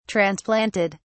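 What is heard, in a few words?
"transplanted", British English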